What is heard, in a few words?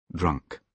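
"drunk", British English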